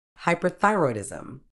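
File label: "hyperthyroidism", British English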